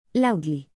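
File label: "loudly", English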